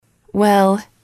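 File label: "well", female, english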